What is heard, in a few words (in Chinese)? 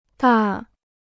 "大", Chinese